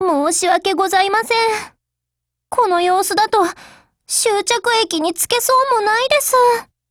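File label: RETREAT, Japanese; 81typeR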